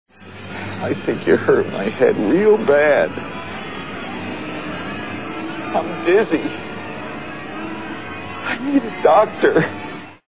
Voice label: hurt